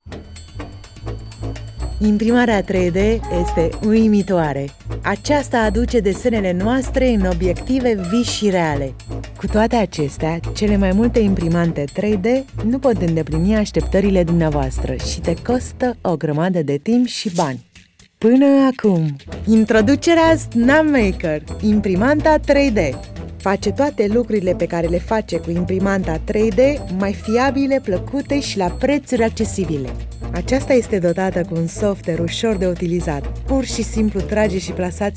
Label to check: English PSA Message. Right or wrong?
Wrong